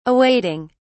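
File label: "awaiting", British English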